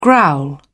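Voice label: "growl", British English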